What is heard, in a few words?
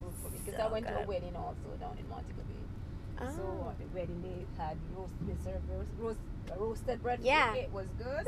Jamaica female 20s